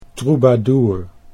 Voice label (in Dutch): "troubadour", Dutch